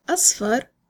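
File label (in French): "jaune", French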